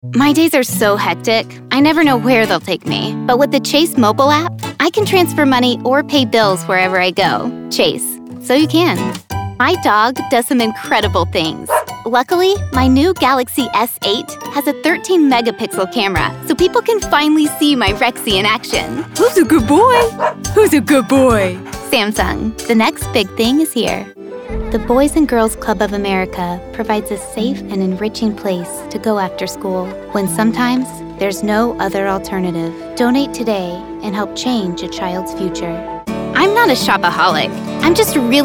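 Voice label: American English commercials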